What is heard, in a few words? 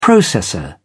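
"processor", English, British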